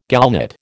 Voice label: "gallnut", American